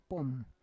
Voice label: "pom", Roon

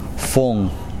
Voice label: "night", Kosraean

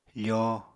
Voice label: "foo", Apatani

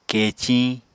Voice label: "keciñ", Apatani

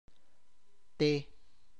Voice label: "because", relative clause marker, Tlacochahuaya

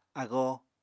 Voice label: "agoo", Apatani

